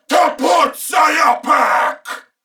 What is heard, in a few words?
Player Spotted Male, en; Dialog; Barks; Battle; Corpus Venus; Warframe; CorpusVenusMaleB